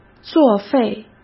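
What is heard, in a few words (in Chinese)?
"作废", Mandarin Chinese; hsk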